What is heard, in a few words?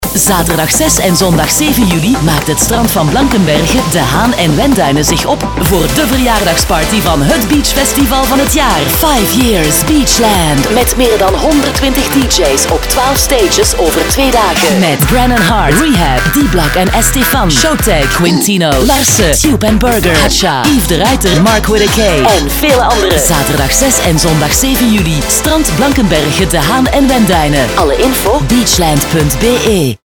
commercial; beachland 2013